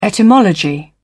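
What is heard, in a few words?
"etymology", British English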